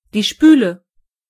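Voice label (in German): "sink", German; gcompris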